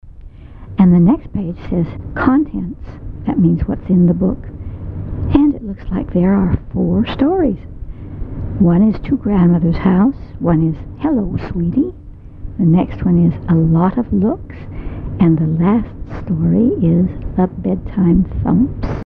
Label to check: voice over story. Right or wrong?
Right